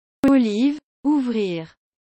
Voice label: ouvrir french